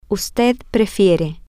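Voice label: Spanish, female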